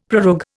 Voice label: "prorogue", Indian; Dictionary